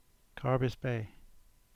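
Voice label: "Carbis Bay", English; settlement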